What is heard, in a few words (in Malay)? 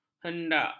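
"hendak", Malay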